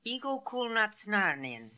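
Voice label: "Egokoolnatsnarnian", pronunciations; Fourth Way; Beelzebub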